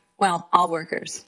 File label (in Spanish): Voice Acting es